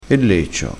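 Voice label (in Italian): "leccio", vocabolario dialettale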